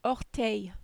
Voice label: "orteil", French

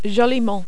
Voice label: "joliment", French

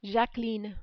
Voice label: "Jacqueline", French

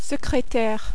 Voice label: "secretaire", French

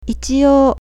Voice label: "ichiou", Japanese

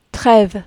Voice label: "treve", French

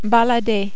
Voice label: "balader", French

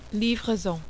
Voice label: "livraison", French

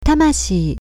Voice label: "tamashii", Japanese

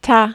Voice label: "tas", French

